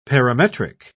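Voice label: "parametric", British English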